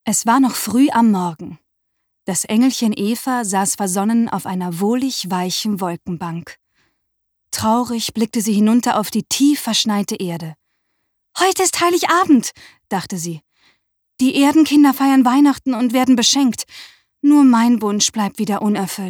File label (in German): hoerspiel